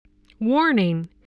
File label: "warning", spelling